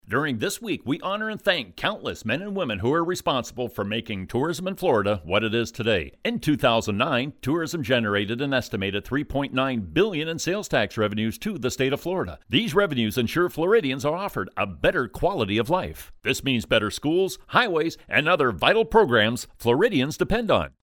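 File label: American English, male, voice over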